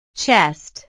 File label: "chest", inglés